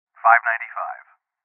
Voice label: Triangulation Male; rebel cops 2019 gamerip; Voices